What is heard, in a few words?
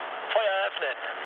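German attack position mood low, speech; War Thunder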